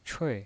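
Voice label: "chui", Mandarin Chinese pinyin voice, fourth tone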